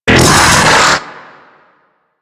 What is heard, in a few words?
Disengage, Dialog Barks Battle; Warframe; Ambulas